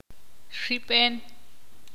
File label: ixil; hermano de mujer